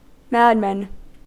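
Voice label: "madman", US English; pronunciations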